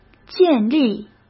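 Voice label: "建立", Mandarin Chinese; hsk; chinese-flashcards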